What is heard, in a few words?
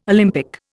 "Olympic", Indian